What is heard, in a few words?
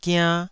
"giann2", Taiwanese syllable